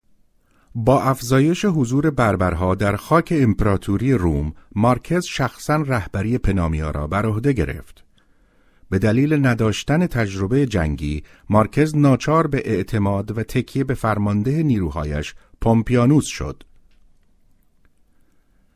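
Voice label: Persian Farsi, male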